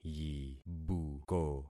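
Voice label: "yibuko", concatenated syllables; Affixing experiment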